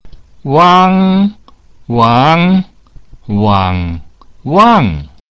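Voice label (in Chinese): wang, Chinese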